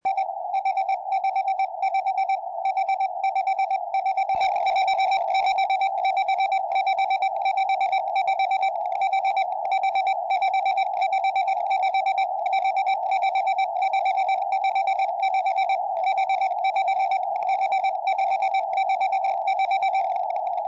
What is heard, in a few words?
70cm, tropo